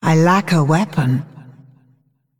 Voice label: Female Dragon ERROR NEED WEAPON